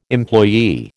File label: "employee", American